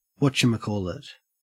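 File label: "whatchamacallit" English, Australian; pronunciations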